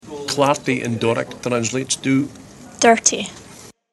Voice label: dirty, Doric, north east; doric dictionary